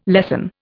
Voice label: "lessen", Indian